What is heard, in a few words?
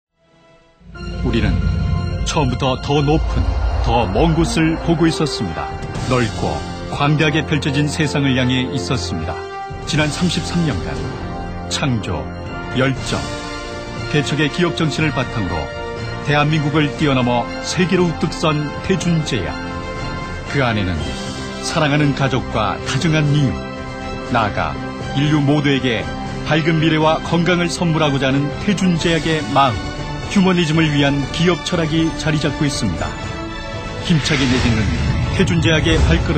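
Korean, male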